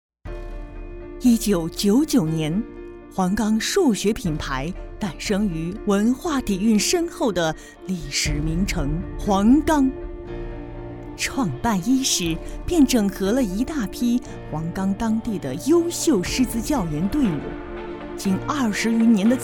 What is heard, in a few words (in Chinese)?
宣传片; 黄冈中学